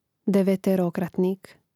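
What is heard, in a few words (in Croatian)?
"deveterokratnik", Hrvatski; mreznik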